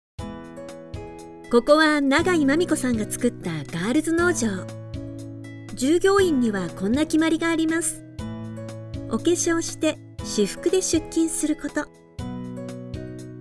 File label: corporate young adult female